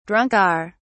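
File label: "drunker", British English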